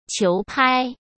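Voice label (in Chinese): "球拍", Mandarin Chinese; hsk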